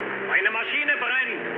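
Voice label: German on fire mood med, speech; War Thunder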